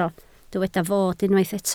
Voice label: "fod", Welsh